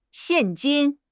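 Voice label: "xian4 jin1", Mandarin Chinese; HSK; HSK4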